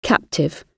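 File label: "captive", British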